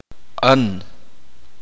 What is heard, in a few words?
uspanteko; maduro